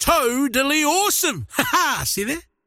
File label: croak big; dota; largo